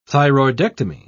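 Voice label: "thyroidectomy", British English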